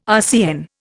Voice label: "RCN", Indian